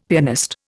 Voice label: "pianist", Indian; Dictionary